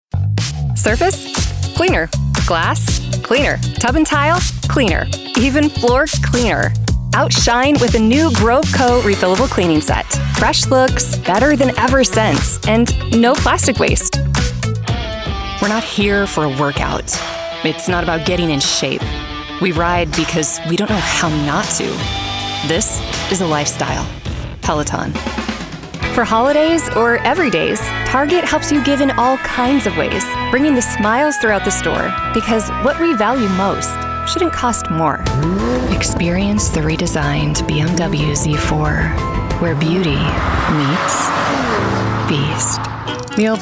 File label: women commercial